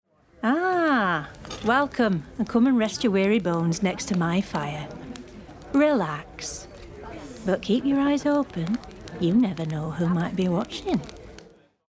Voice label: Character Northern Barmaid